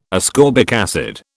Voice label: "ascorbic acid", British